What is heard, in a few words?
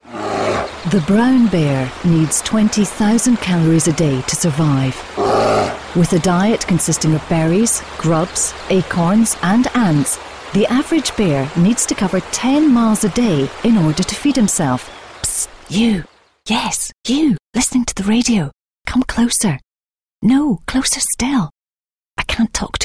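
British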